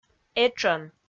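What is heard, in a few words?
"этшӧн", Komi, female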